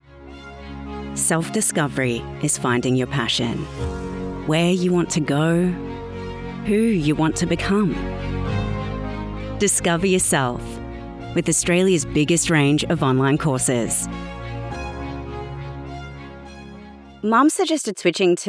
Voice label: VO Commercial AU, Australian Voice Over